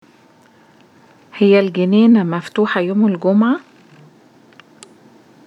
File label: Egyptian